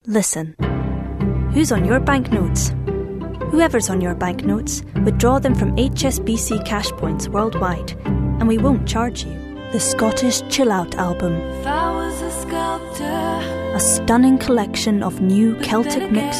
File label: Young Scots